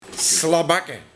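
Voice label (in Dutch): "Slabakke", dialect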